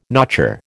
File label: "notcher", American